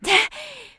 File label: voice delay; Shua